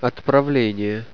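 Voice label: "departure", russian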